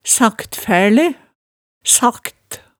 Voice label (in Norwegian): "saktfærle", hemsedalsmaalet